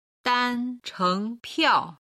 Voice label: "danchengpiao", Chinese